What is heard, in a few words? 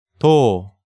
"Do", Hangeul sounds; DigitalSoju